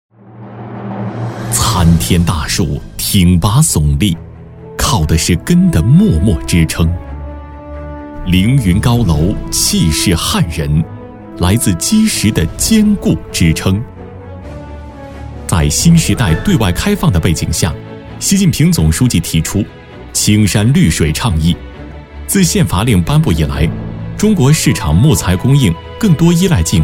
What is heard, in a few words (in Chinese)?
男 宣传片; 重庆金胆